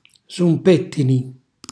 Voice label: "sumpettini", Calabrese; cucina calabrese